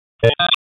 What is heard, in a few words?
Raleigh Police Northeast District Tac, p25